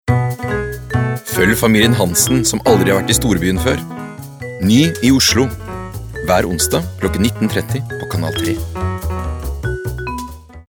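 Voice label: Norwegian promo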